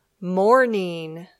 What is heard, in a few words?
"morning", English